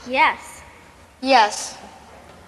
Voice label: yes yes, girl and boy